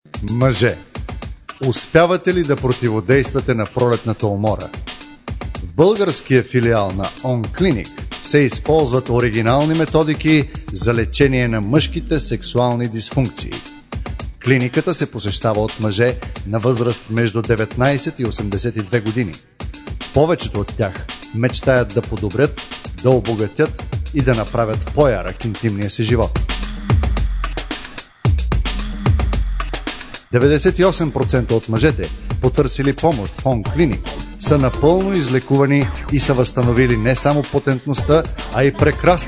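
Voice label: bulgarian male voice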